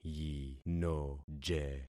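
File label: "yinoje", concatenated syllables; Affixing experiment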